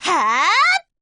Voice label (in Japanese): whack, jp; p4u; yukari